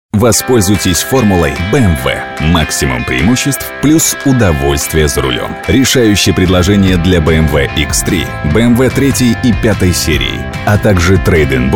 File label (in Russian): Дикторы, Озвучка